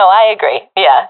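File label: agree, professional female; vo; Counter Strike Model Pack; CS:GO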